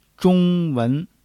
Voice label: "zhōngwén", Zh